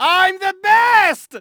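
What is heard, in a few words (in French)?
"victoire", male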